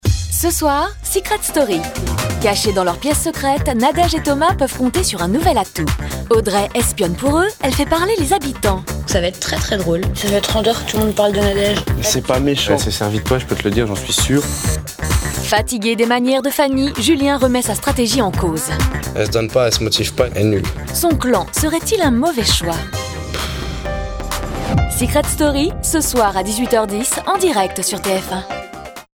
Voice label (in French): Bande annonce; Secret story